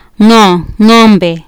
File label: "ngombe", Kiswahili